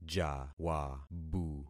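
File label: "jawabu", concatenated syllables; Affixing experiment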